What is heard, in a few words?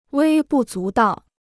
"微不足道", Chinese